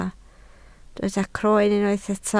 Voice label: "croen", Welsh